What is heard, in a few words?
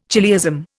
"chiliasm", Indian